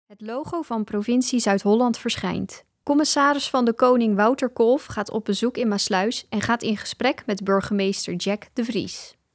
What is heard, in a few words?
voice over; gesprek burgemeester maassluis